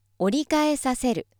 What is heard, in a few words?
female